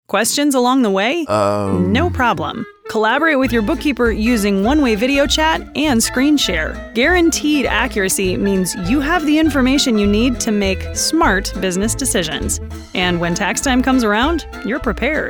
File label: Explainer; Explainer Demo